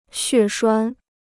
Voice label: "血栓", Chinese

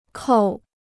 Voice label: "扣", Chinese

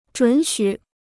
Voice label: "准许", Chinese

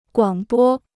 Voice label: "广播", Chinese; guǎng bō; broadcast; broadcasting

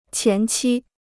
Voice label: "前期", Chinese

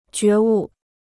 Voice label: "觉悟", Chinese

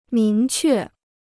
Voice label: "明确", Chinese

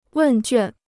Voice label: "问卷", Chinese

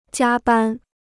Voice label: "加班", Chinese

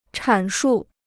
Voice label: "阐述", Chinese

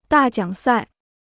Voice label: "大奖赛", Chinese

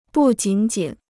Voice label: "不仅仅", Chinese

